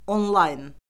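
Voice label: "onlayn", Azerbaijani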